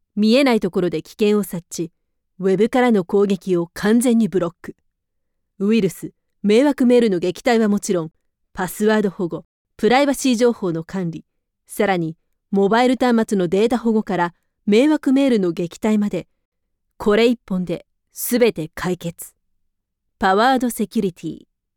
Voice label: Commercial Cool

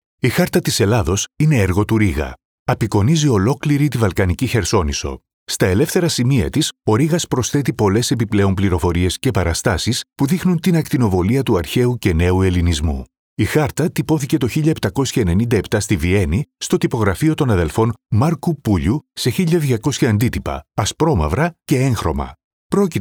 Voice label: Narration, Neumann TLM67

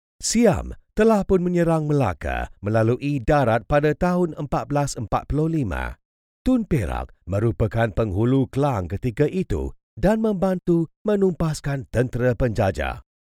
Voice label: Imagefilm Narration Malay

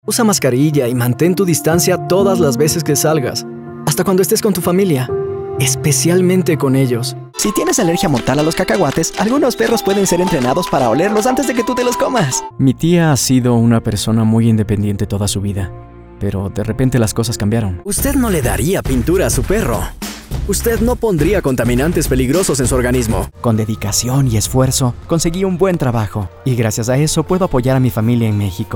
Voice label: Commercial Spanish

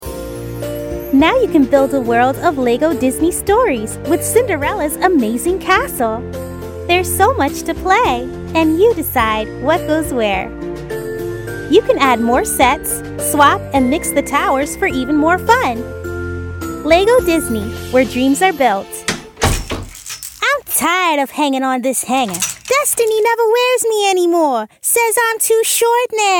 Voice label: Kid Voices

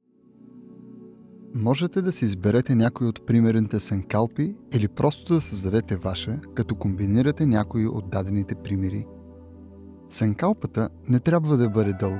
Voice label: Bg Narrative

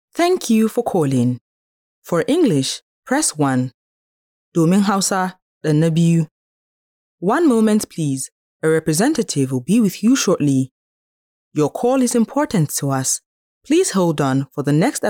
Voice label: IVR

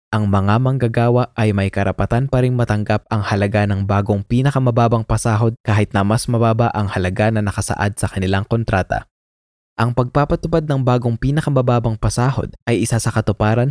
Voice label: Filipino Informative

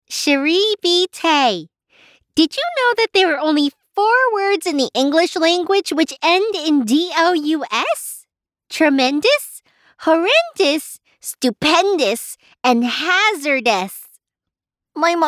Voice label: Kids Voices

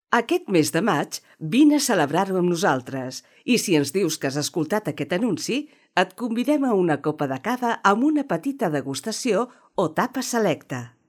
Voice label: catalan languaje

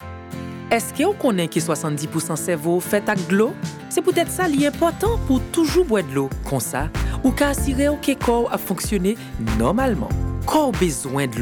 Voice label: Creole Commercial; DASANI COCA COLA

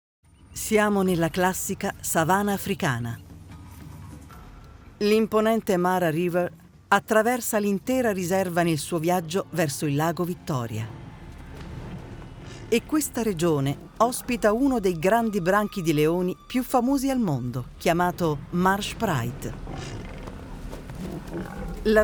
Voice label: Documentary

